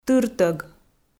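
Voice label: "тыртӧг", Komi, female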